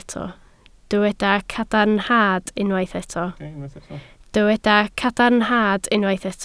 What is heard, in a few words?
"cadarnhad", Welsh